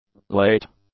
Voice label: "late", english; adjectives

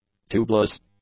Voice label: "tubeless", English; adjectives

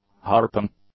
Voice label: "harping", English; nouns

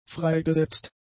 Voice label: "freigesetzt", German; adjectives

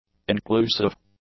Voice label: "inclusive", English; adjectives

